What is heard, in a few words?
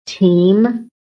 "team", American